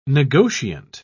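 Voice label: "negotiant", British English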